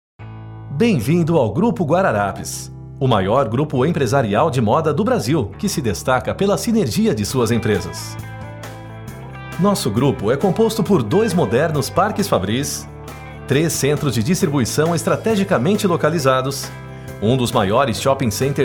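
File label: Brazilian, corporate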